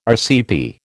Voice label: "RCP", American